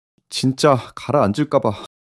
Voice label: fluster

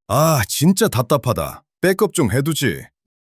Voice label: anger

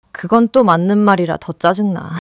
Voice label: anger

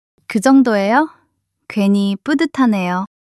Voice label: shy

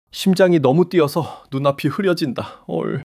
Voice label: fluster

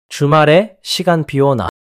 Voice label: neutral

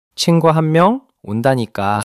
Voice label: neutral

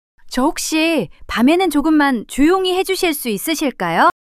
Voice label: fluster

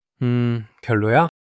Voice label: shy

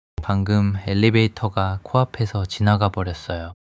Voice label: sadness

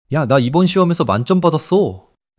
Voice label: excitement

